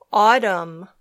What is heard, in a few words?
"autumn", English